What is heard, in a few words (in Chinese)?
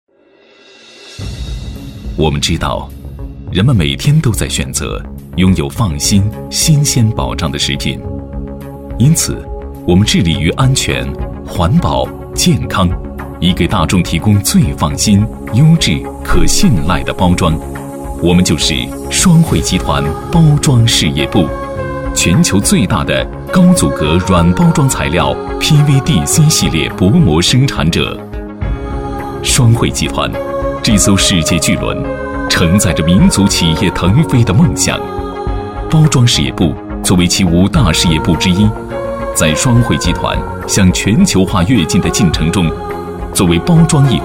男 专题 企业; 企业双汇